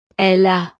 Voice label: "elle a", frances

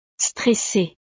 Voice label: "stressee", frances; estados animo

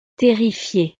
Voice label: "terrifie", frances; estados animo

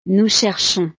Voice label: "nous cherchons", frances

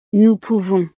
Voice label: "nous pouvons", frances

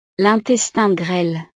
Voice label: "l intestine grele", frances; partes del cuerpo

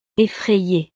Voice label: "effraye", frances; estados animo

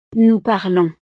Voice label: "nous parlons", frances

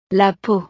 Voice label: "la peau", frances; partes del cuerpo

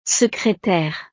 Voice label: "secretaire", frances; profesiones

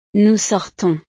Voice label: "nous sortons", frances

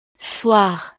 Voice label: "soir", frances

